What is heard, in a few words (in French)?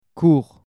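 "cour", French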